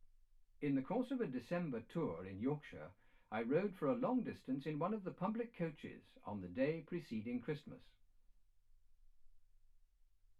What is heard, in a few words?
male speech english; single mic